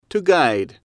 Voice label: to guide, English